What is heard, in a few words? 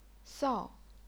"sao4", chinese pinyin